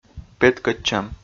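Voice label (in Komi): "петкӧдчӧм", Komi, male; audio dict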